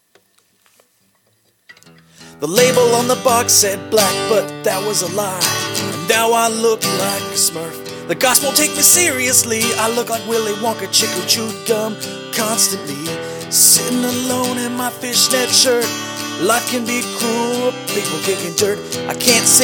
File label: drama kids